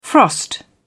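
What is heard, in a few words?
"frost", British English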